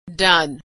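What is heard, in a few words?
"done", American English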